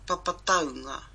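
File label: "papa taunga", Māori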